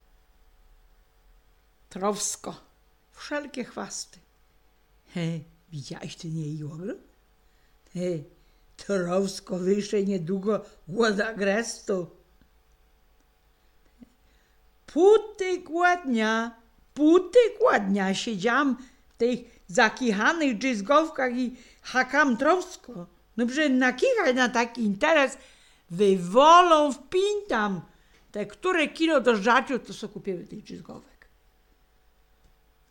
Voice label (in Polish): trowsko, gwarowy